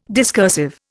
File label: "discursive", Indian